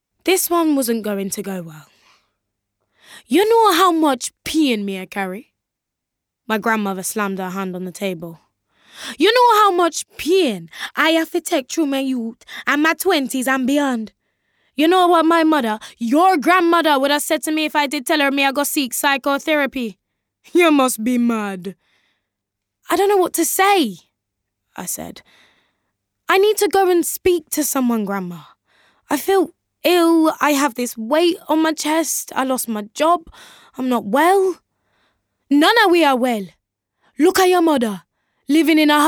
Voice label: Audiobook, Young, relatable, charismatic and cool.